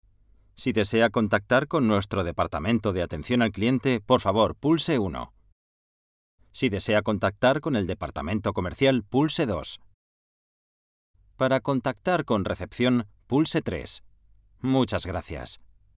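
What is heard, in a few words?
Spanish, male, interactive voice response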